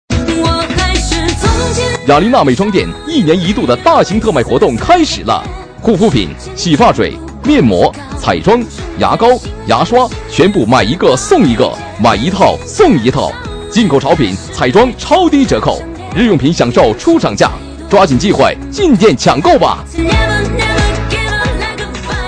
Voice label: 男 促销 美妆店, 促销男配; 雅琳娜美妆店

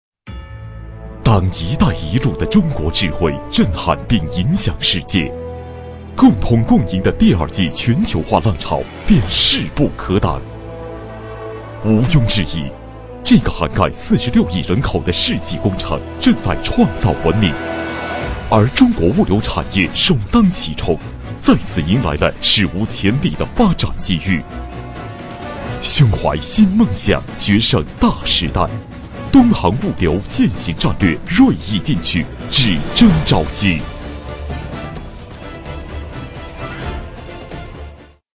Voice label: 男 专题 汇报; 一带一路专题汇报

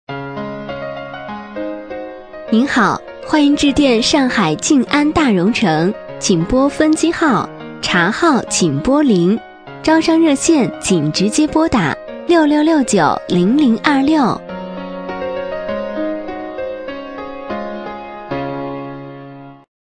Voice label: 女 彩铃 温柔彩铃

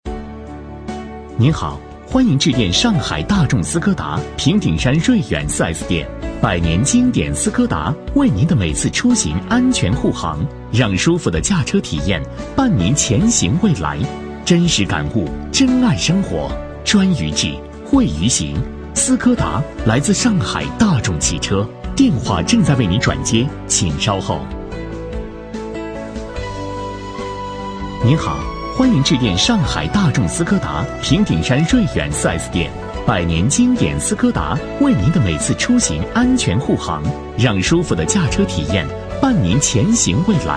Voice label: 男 彩铃

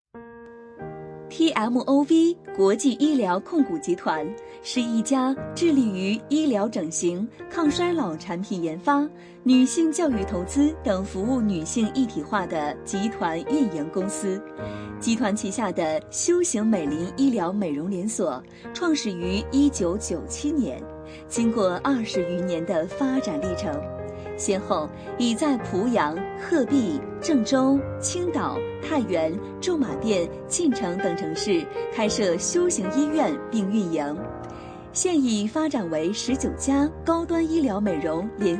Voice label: 女 专题; 美容医院

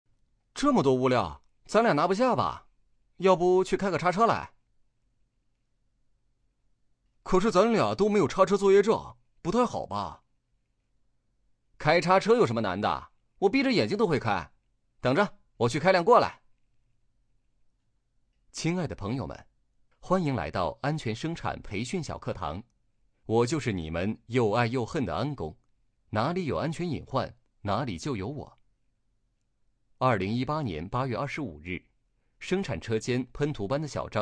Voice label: 男 课件 课件三角色

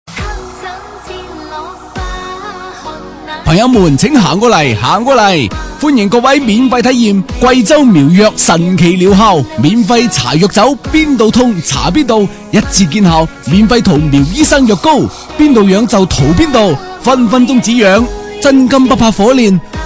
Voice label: 男 粤语促销; 苗医生药膏

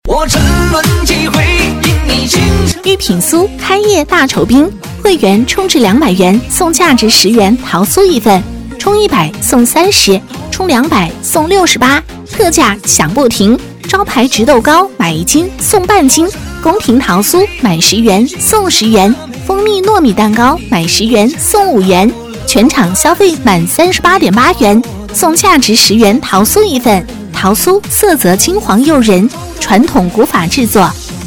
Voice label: 女 促销 开业大酬宾; 御品酥开业大酬宾

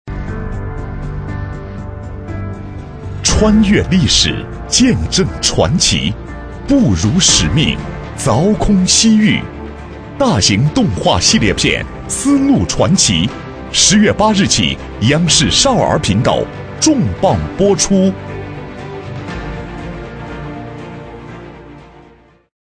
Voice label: 男 广告 少儿片花; cctv

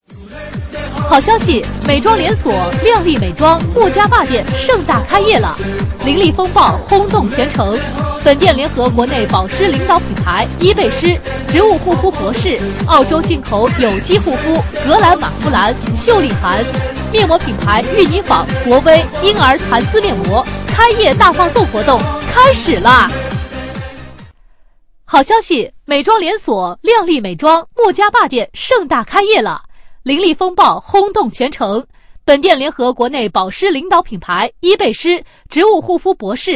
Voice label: 女 促销 美妆

